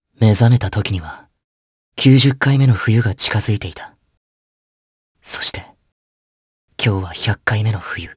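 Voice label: voice dia, voices heroes, Japanese; Theo; kingsraid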